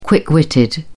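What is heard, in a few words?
"quick witted", British English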